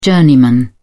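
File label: "journeyman", British English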